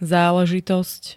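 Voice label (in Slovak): "záležitosť", Slovak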